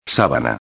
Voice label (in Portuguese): "sabana", espanhol